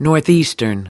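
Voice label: "northeastern", American English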